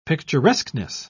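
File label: "picturesqueness", British English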